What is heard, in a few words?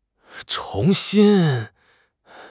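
"chong2 xin1", Mandarin Chinese; HSK; HSK4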